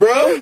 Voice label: "bro" questioning guy; ooh ahh